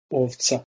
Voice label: "ovca", Bosnian